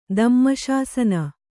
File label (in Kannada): "ದಮ್ಮಶಾಸನ", kannada